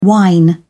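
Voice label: "wine", British English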